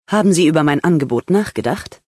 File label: Female adult greeting